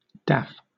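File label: "daff", British English; pronunciations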